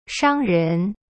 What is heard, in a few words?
"商人" shang ren, chinese; cyberchinese